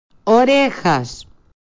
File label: voice changer